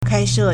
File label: "kāishè", Chinese; 开设; 開設; verb